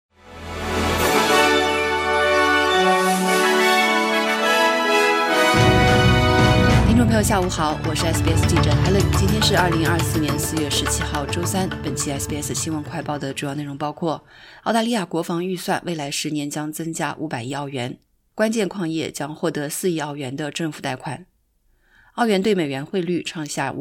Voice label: mandarin newsflash; 澳洲国防预算未来十年将增加500亿澳元; SBS Chinese; SBS新闻快报

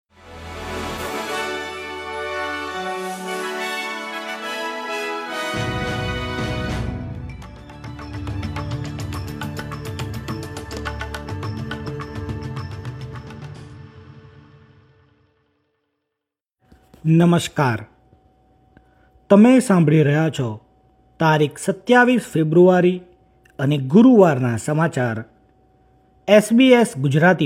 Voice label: gujarati newsflash; SBS Gujarati Australian update: 27 February 2025

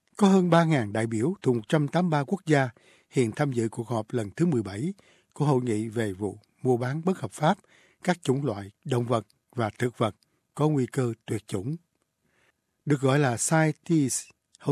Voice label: vietnamese

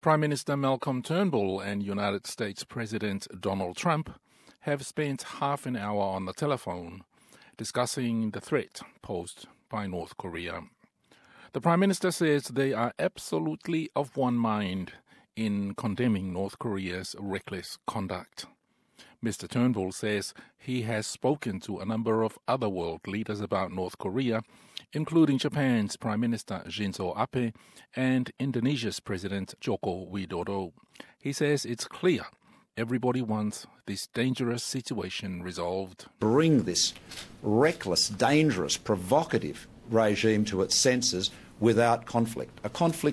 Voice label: samoan; Tutusa manatu o Ausetalia ma Amerika i le lu'itau o Korea i Matu; SBS Samoan

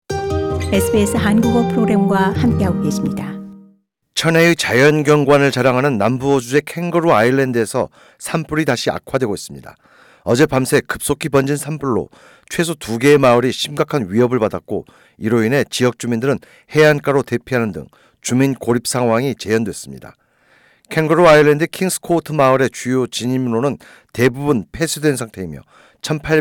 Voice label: korean; SA 캥거루 아일랜드 산불 다시 악화…일부 지역 주민 산불로 고립; SBS Korean

